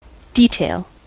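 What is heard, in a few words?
"detail", US English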